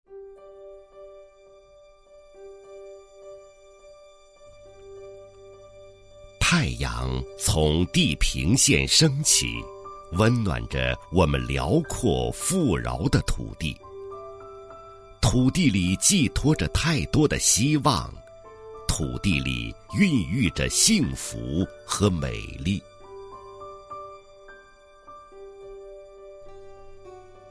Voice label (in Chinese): 男, 人物 舒缓叙述; 田间诊脉人